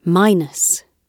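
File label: "minus", British English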